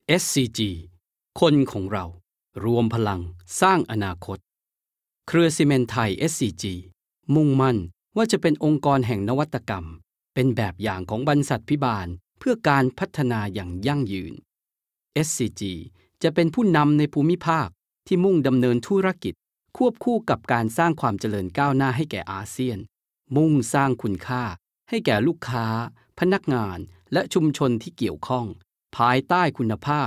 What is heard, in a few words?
Thai narration